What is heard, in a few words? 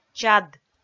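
"чад", Komi, female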